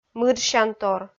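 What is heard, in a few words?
"мыджсянтор", Komi, female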